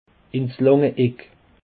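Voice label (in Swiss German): "eck", Bas Rhin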